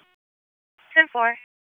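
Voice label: Police Northeast District Dispatch; Raleigh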